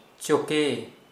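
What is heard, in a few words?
"frog", Kera Mundari, male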